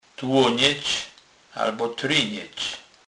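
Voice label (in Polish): "tlonec", kurpie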